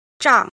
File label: "jin", Chinese